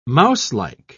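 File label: "mouselike", British English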